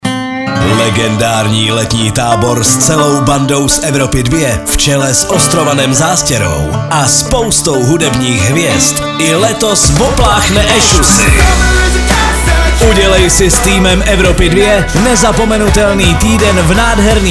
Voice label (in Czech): promo summer camp soutez; evropa2